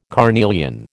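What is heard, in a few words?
"carnelian", American English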